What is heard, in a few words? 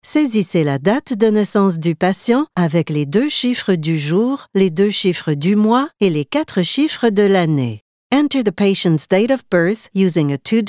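Bilingual Canadian French English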